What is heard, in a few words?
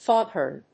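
"foghorn", English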